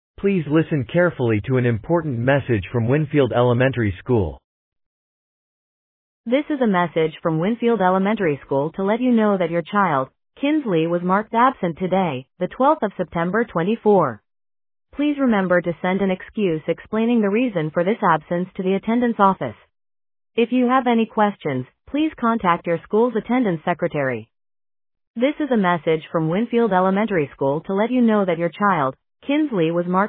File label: Voicemail